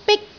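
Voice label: "bik1", Cantonese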